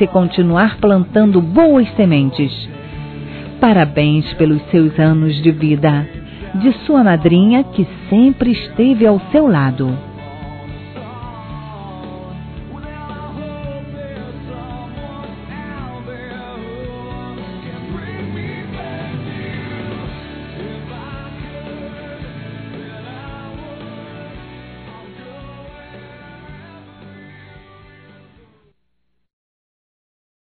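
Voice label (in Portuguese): Aniversário de Afilhados, female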